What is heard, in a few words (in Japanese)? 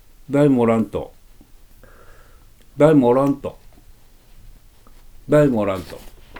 出水方言; [ダイ]モ [オラン]ト 「誰もいないんだ」(A 型); wav形式のPCM音声です。録音は、T氏(1931年4月生まれ)にお願いしました。